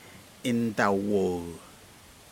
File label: birds Longtailed jaeger, Aneityum